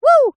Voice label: "woo", cartoon character high pitched